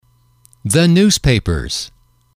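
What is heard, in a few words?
"the newspapers", English, male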